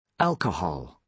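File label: "alcohol", British English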